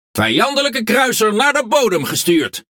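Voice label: NL Speech Destroy Enemy Cruiser, Dutch; World Of Warships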